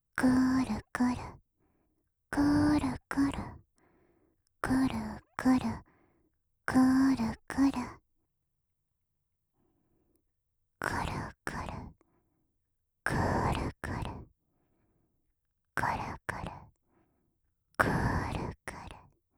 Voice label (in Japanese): ロリクルクルふつう, オノマトペ 左耳; 乳首オナ指示でカリカリタイムちょっぴりハードモード